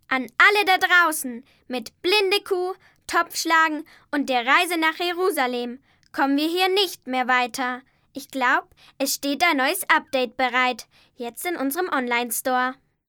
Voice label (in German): maedchen